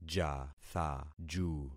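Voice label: "jathaju", concatenated syllables; Affixing experiment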